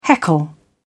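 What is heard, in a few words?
"heckle", British English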